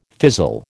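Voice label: "fizzle", American English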